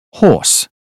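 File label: "horse", British phonetics; 英语音标发音（剑桥版）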